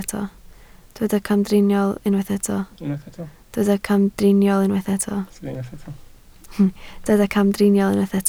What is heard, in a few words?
"camdriniol", Welsh